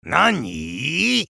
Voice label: vo chatter negative; respawn; eisen